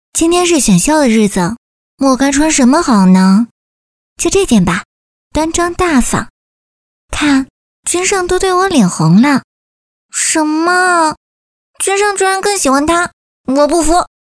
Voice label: 角色 配音

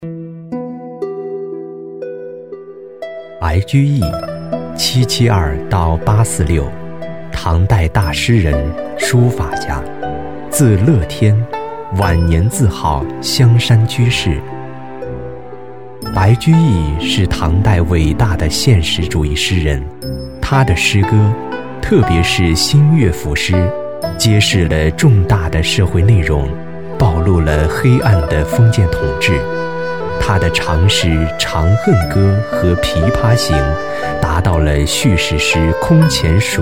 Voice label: 纪录片; 白居易

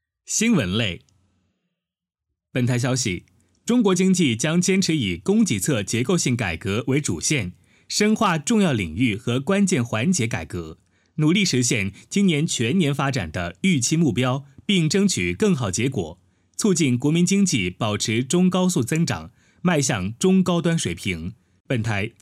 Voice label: 新闻类

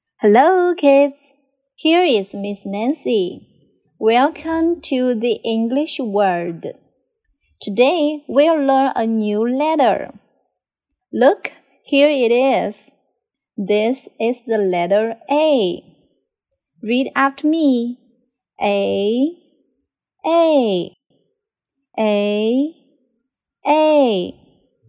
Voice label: 女声英语字母单词清晰标准亲切

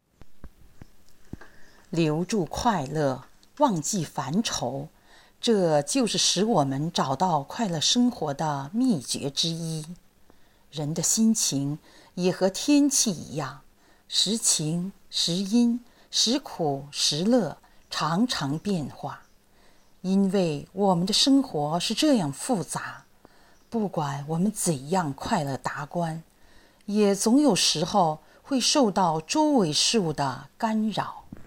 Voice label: 散文朗读

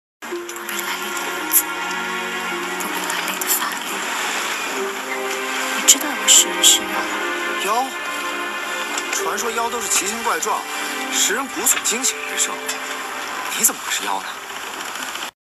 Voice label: 影视白蛇配音

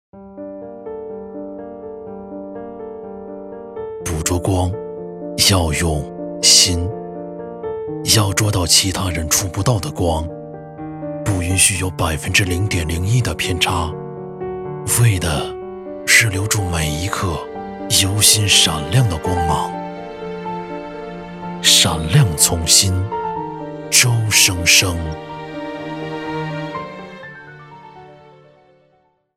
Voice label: 产品广告 电视广告; 周生生

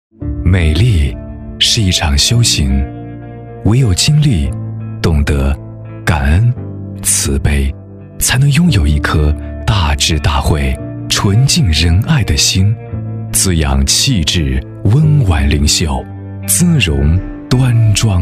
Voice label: 高端男温情舒缓美容养生专题

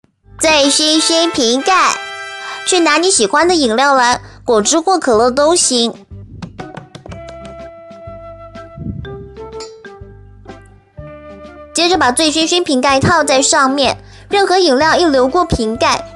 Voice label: 多角色演绎; 大雄机器猫; 哆啦梦

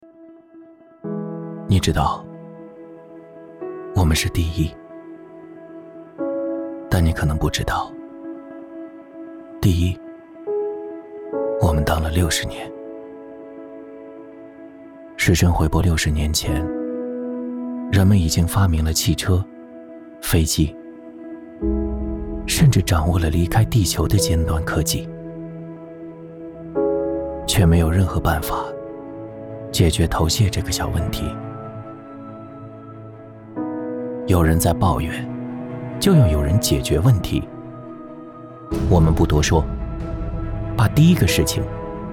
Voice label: 走心旁白; 海飞丝

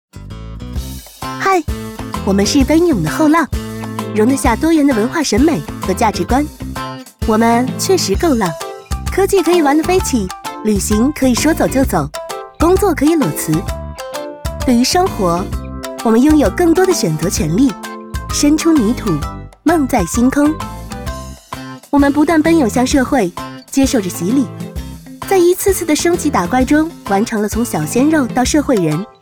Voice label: 宣传片活泼主述; 宣传片活泼主述时代担当

